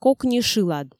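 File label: "кокни шылад", Komi, female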